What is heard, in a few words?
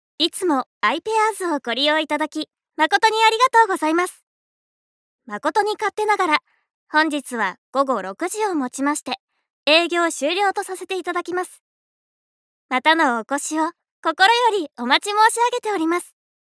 narrator